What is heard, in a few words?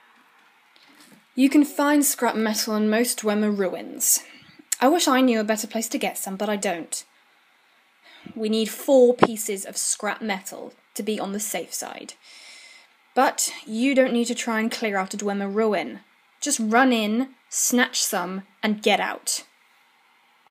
wood elf female